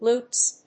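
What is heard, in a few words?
"lutes", English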